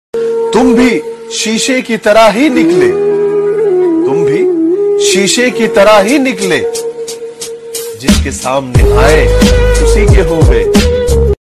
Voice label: Sad Shayri Ringtone

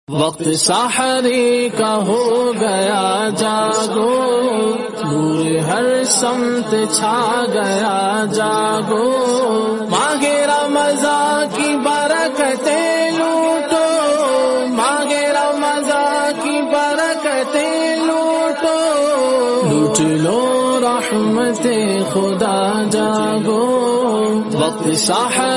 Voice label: Waqt Sehri Ka Ho Gaya Jago Ramzan Ringtone

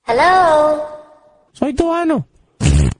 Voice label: Hello Funny Style Ringtone

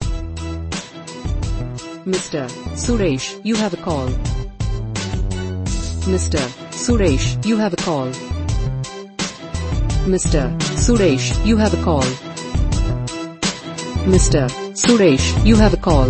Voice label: Name Ringtone With Music

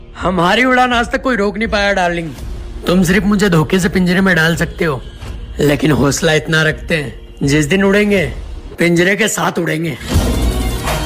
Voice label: Attitude Shayari Ringtone

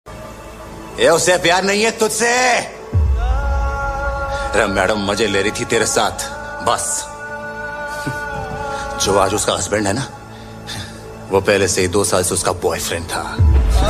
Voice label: Sad Dialogue Ringtone; Tadap Movie

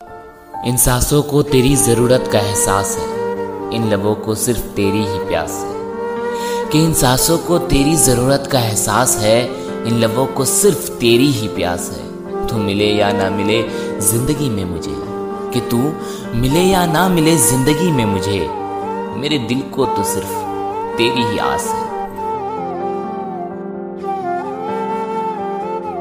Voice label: Love Shayari Ringtone

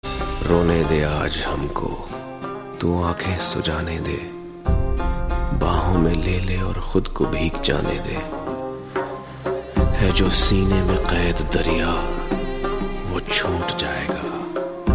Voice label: Shayari Ringtone

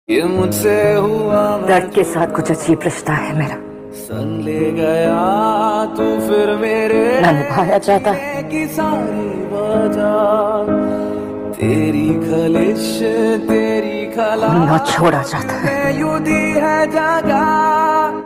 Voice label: Dialogue Ringtone; Dard Kay Sath Kuch Ajeeb Rishta Hay Mera

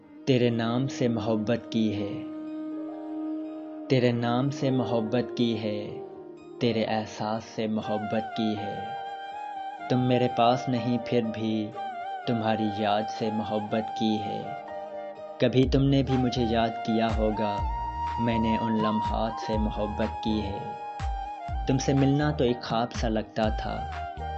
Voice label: Shayari Ringtone

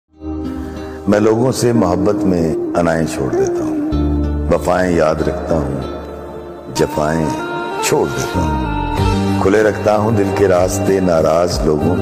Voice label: Shayari Ringtone

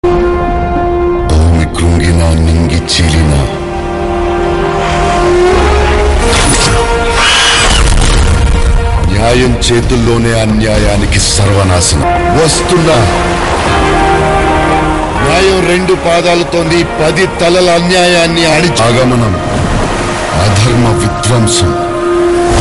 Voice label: Telugu Dialogue Ringtone; Adipurush

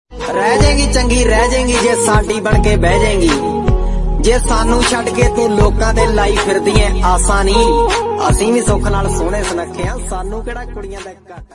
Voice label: Punjabi Boy Attitude Ringtone

